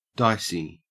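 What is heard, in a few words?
"dicey", Australian English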